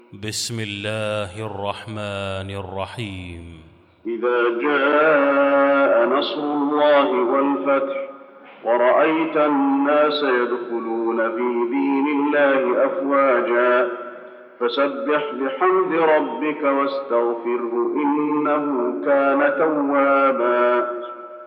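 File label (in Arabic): النصر, تلاوة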